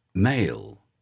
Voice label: male